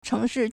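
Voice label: "chéngshì", Chinese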